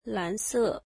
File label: blue, mandarin